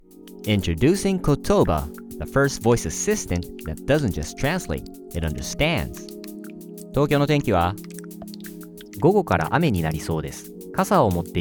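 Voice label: Bilingual Code Switching English Japanese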